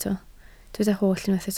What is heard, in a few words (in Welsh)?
"holl", Welsh